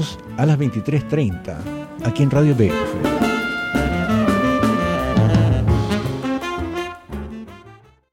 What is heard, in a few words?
promo jazz